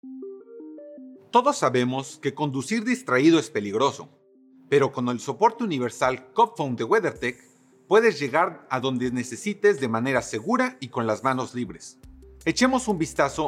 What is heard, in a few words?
Spanish; CupFone